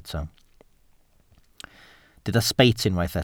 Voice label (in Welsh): "sbeit", Welsh